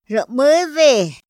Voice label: "ra mäde", Otomí; INPI

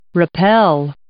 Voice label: "rappel", English

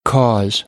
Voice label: "cause", American; AmericanBritish

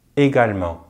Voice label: "également", French; Consonantes en francés; easypronunciation

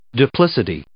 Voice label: "duplicity", English

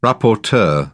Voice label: "rapporteur", British English

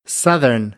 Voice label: "southern", American English

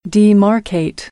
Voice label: "demarcate", American; AmericanBritish

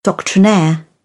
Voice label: "doctrinaire", British; AmericanBritish

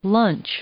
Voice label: "lunch", English; noun

